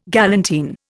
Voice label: "galantine", Indian